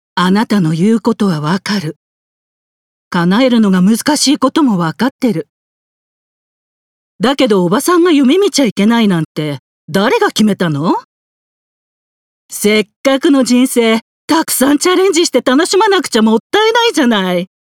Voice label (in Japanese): 地声